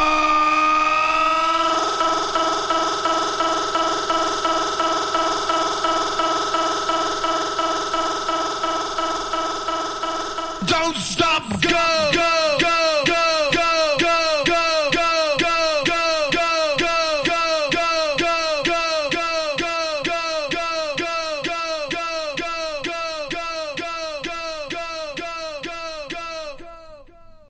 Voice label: raw power bass power; Robotic or Stuttery or Spooky